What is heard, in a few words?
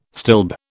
"stilb", British